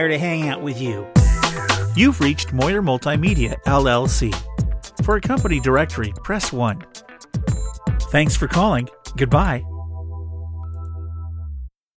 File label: Phone Message